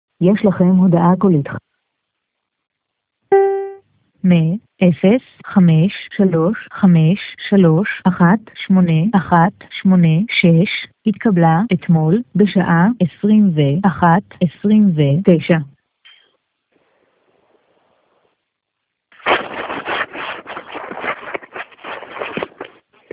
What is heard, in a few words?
Voice Mail